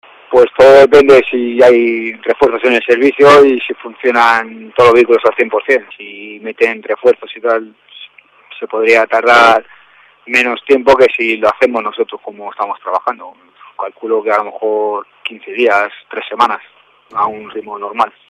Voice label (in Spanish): noticias